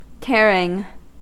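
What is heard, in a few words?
"tearing", US English; pronunciations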